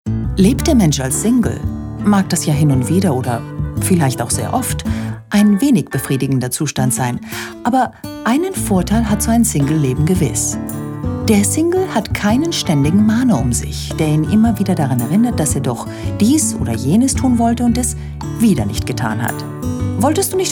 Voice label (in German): DE ERZAHLUNG